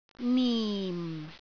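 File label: "nim4", Cantonese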